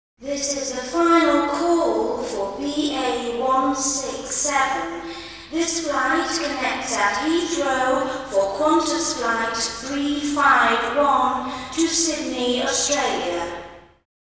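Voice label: Airport; Flight Announcements; Female Flight Announcements In Afrikaans And English.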